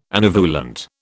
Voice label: "anovulant", British

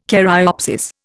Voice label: "caryopsis", Indian

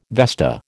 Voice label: "vesta", American

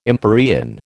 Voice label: "empyrean", American

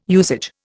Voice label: "usage", Indian

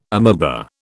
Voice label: "amoeba", British

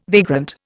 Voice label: "vagrant", Indian

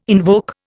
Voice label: "invoke", Indian

